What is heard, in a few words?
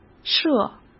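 "设", Mandarin Chinese; chinese-flashcards; hsk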